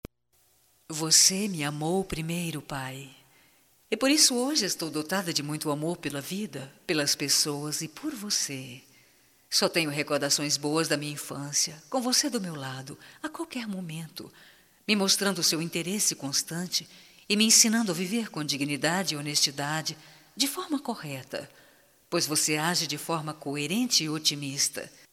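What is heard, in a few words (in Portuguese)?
OBRIGADO PAI - female, Telemensagens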